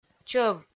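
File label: "чӧв", Komi, female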